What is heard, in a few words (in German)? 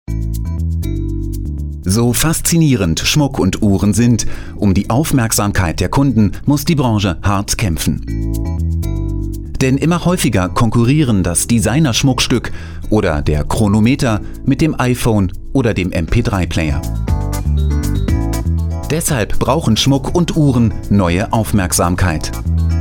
sprecher werbung